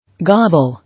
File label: "Gobble", English